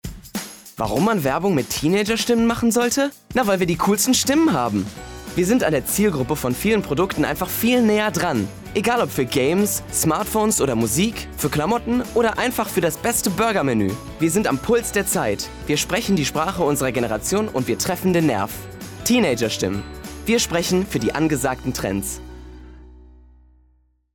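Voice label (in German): Werbung German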